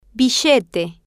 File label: "billete", Spanish